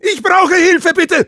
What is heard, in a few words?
Spy help me, de; "Ich brauche Hilfe, bitte!"; Spy Sprachkommandos